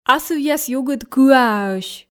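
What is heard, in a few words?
"асывъяс югыд кыааӧсь" Komi, female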